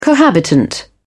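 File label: "cohabitant", British English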